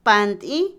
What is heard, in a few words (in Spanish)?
Otomí, mujer; amasar; Comida; INALI